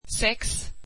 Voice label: swedish six